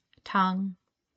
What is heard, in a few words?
Tang, pronunciation guide